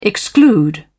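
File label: "exclude", British English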